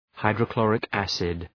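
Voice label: "hydrochloric acid", English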